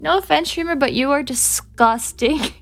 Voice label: disgusting